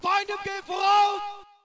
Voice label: ger mg ahead; dod; dod 1.3; dod enhancement pack